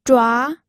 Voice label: "zhua2", pinyin